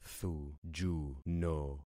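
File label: "thujuno", concatenated syllables; Affixing experiment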